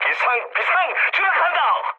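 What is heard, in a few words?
Korean aircraft destroyed mood medium; speech; War Thunder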